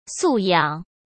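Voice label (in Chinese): "素养", Mandarin Chinese; hsk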